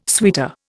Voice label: "suitor", Indian

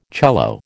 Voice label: "cello", American

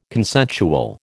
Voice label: "consensual", American

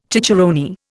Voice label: "cicerone", Indian

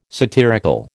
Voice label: "satirical", American

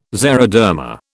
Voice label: "xeroderma", British

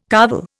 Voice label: "carvel", Indian

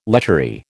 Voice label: "lechery", American

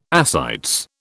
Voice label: "ascites", British

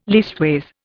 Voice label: "leastways", Indian

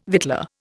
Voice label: "victualler", Indian

